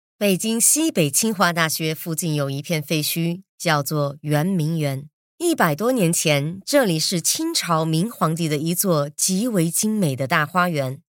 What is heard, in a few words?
chinese mandarin narration